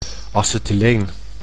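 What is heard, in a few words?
"acethyleen", Dutch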